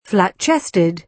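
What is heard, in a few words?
"flat-chested", British English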